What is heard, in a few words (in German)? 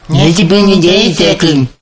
genuschel, ringtones